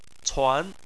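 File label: "cuan" second tone, Chinese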